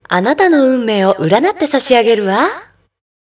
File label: voice baby